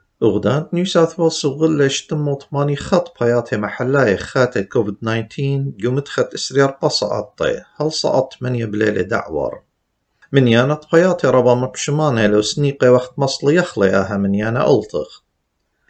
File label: assyrian; covid-19 coronavirus; covid-19 daily key points